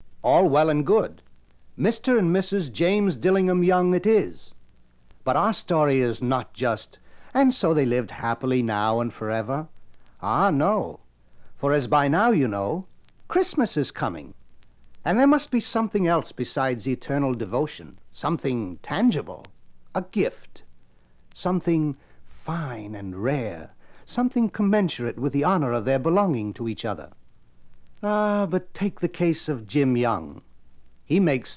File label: Narration